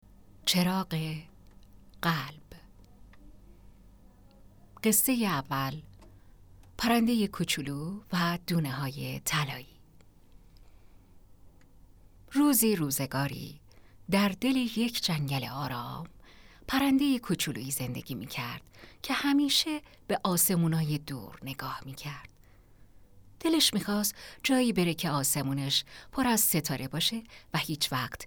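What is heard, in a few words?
Farsi, General; GoLocalise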